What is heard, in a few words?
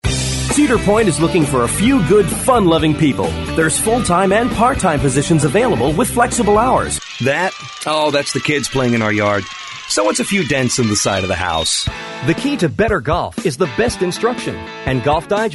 male US retail